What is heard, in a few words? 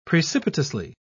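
"precipitously", British English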